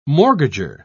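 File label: "mortgager", British English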